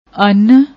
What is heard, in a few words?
"aunn", Punjabi; ਅੰਨ